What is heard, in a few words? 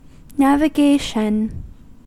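"navigation", English, American accent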